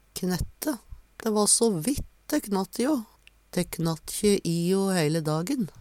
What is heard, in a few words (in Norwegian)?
"knette", Numedalsmål; Dæ va so vitt dæ knatt i`o. Dæ knatt`kje i` o heile dagen.